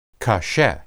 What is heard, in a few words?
"kasheh", Hebrew